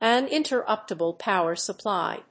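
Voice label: "Uninterruptible Power Supply", English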